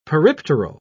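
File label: "peripteral", British English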